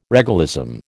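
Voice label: "regalism", American English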